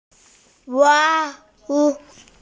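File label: "wan2ju4", Mandarin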